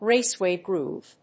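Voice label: "raceway groove", English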